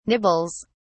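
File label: "nibbles", British English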